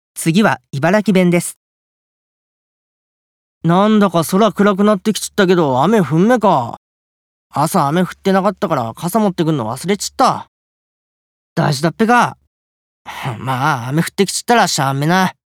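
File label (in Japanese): 茨城弁, ボイスサンプル; 方言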